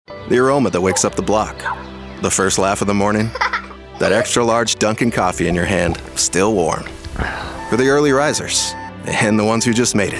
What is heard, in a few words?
Commercial